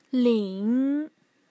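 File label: "ling", Mandarin Chinese, third tone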